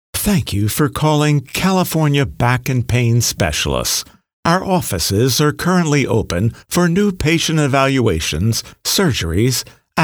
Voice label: IVR

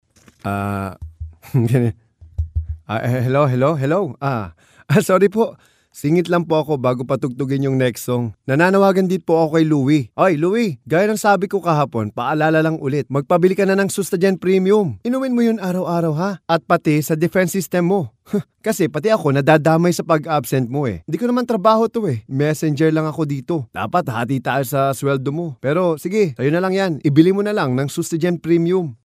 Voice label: Character Voice

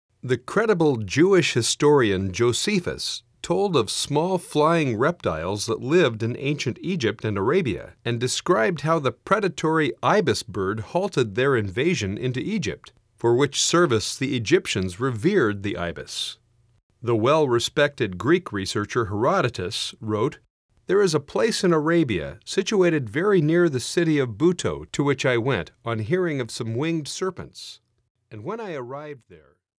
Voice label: Documentary narration

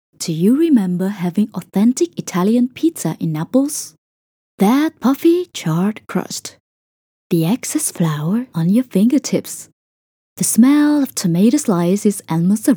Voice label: Intimate Sweet Food Travel

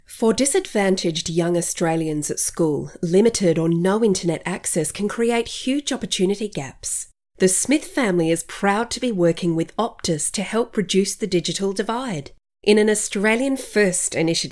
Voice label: Studio

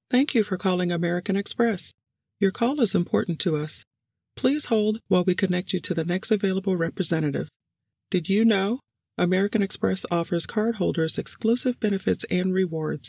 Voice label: Telephone System

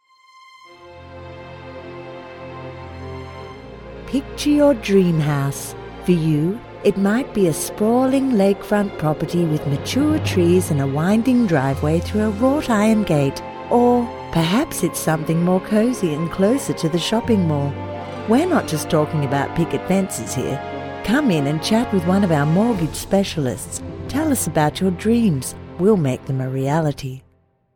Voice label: TV Radio Mortgage Specialists Advertisement

